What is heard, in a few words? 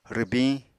"rwbiñ", Apatani